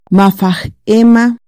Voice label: "Cerca", Chontal

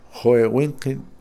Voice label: cien, Kakchikel; Números

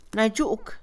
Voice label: solo, Mixe, Mujer; Cantidad; INALI

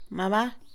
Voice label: cama, Mixe, Mujer; Casa; INALI

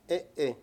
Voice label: "no", Mayo; Palabras con distintas funciones

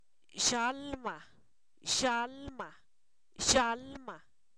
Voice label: alma, Zapoteco, san miguel albarradas; humano; INALI

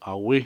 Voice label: "serpiente", Cucapá; Animales

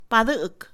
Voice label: barrer, Mixe, Mujer; Acciones; INALI

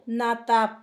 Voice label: cuarto, Zapoteco; Números; San Pedro Mártir; INALI

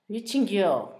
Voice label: muchacho, Zapoteco, Asunción Ocotlan; Humano; INALI

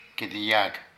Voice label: Lóbulo, Zapoteco de Petapa; Cuerpo; Vocabulary

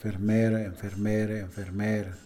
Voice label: enfermera, Mixe, hombre; Moderno

